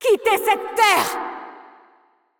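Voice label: French, assist; VO; Irelia; bloom minigame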